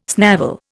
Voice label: "snavel", Indian